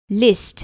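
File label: "list", English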